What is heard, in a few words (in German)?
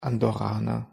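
"andorraner", German; pronunciation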